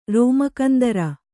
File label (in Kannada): "ರೋಮಕಂದರ", Kannada